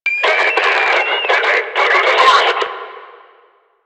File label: Player Spotted Attack, Barks Battle; Warframe; Lasrian; Lasrian Enemy A